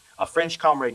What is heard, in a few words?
Voice Acting, es, M